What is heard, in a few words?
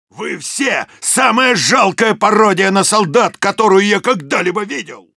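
Soldier jeers, russian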